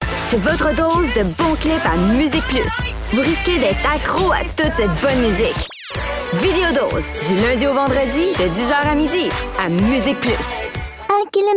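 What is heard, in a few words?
Canadian French, Commercial; voice demos; GoLocalise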